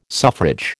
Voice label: "suffrage", American